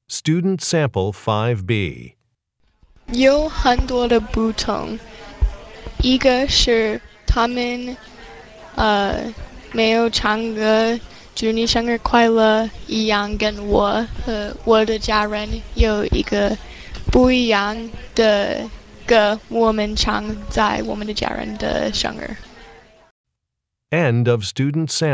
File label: chinese conversation